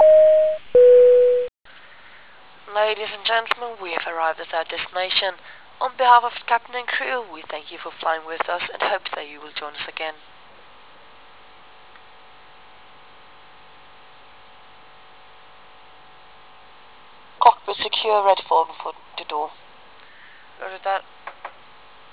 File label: gate, announce; A350